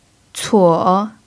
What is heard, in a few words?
"cuo3", Mandarin pinyin